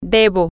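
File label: I should, Spanish, female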